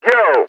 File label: "yo", Voice